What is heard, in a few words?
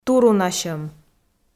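"турунасьӧм", Komi, female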